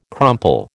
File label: "crumple", American